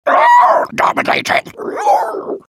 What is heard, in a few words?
zombie bird dominated; medic